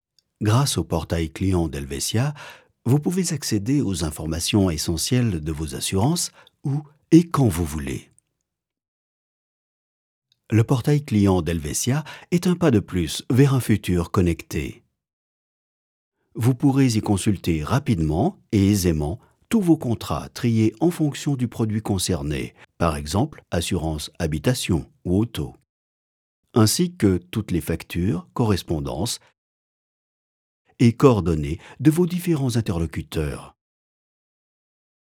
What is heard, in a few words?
elearning